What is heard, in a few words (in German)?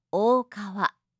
"okawa", japanische